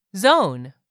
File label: "zone", English; 3000 words